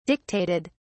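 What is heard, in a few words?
"dictated", British English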